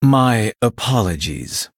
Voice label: Male Blood Elf Sorry; en